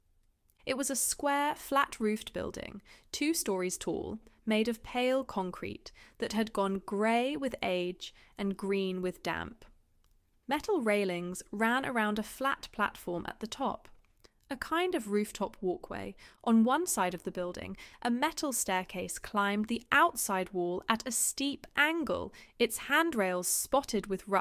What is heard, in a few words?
audiobook sample